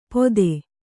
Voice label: "ಪೊತ್ತೆ", Kannada

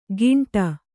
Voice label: "ಗಿಂಟ", Kannada

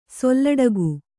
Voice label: "ಸೊಲ್ಲಡಗು", Kannada; sollaḍagu; (Verb)

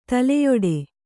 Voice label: "ತಲೆಯುಡೆ", Kannada

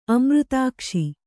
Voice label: "ಅಮೃತಾಕ್ಷಿ", Kannada

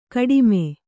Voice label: "ಕಾಟಿಮೆ", Kannada

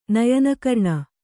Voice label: "ನಯನಕರ್ಣ", Kannada

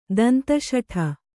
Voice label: "ದಂತಶಠೆ", kannada

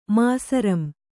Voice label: "ಮಾಸರಂ", Kannada

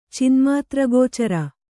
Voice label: "ಚಿನ್ಮಾತ್ರಗೋಚರ", kannada

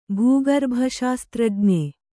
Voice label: "ಭೂಗರ್ಭಶಾಸ್ತ್ರಜ್ಞ", Kannada

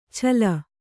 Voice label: "ಛಲ", Kannada

